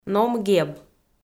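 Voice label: "ном-геб", Komi-Zyrian, female; audio dict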